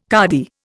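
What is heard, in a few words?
"cardy", Indian English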